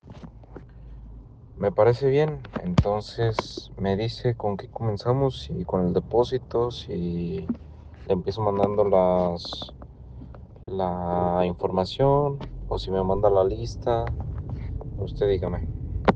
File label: whatsapp ptt